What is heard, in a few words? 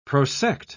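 "prosect", British English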